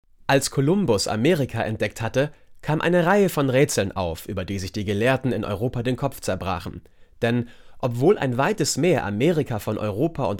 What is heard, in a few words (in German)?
off historischer roman hoerbuch; LOFT VOICES